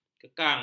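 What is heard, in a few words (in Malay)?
"kekang", Malay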